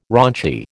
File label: "raunchy", American